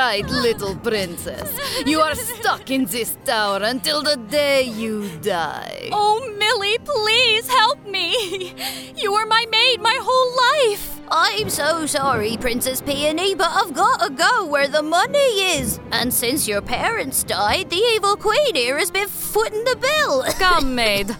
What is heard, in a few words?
Animation